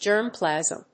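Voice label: "germ plasm", English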